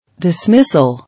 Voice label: "Dismissal", English